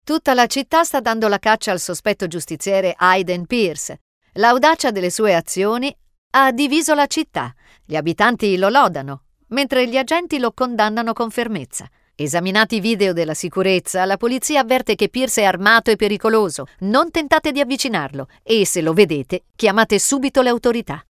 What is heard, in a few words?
Newscaster; Watchdogs